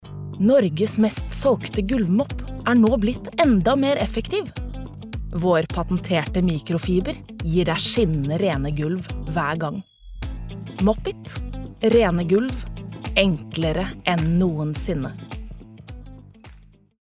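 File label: Norwegian advert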